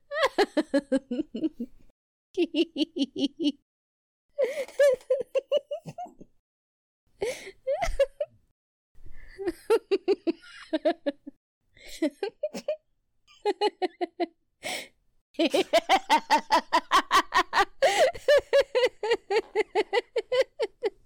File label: Female Lines Laughing